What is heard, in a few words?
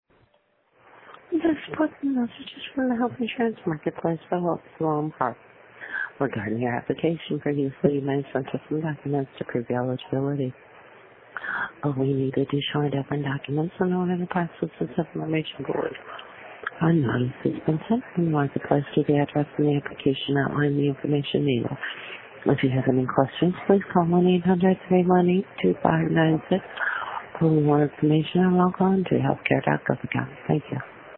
Voicemail